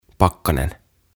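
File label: "Pakkanen" Finnish; Frost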